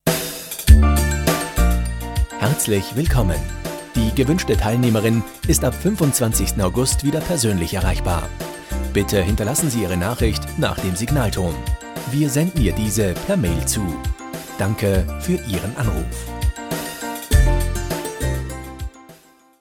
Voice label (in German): Abwesend weiblich universal